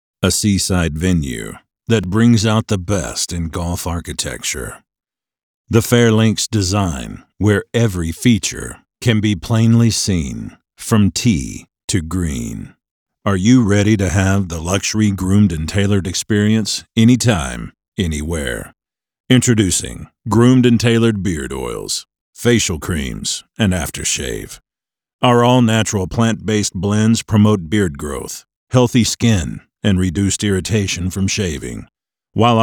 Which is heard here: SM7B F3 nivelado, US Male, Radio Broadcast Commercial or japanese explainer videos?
US Male